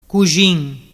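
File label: "cuxin", Ligurian dialect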